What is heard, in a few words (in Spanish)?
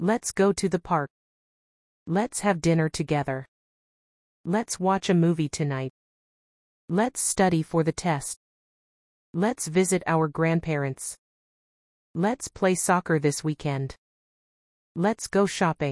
gramatica imperativo lets ingles